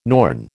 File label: "Norn", American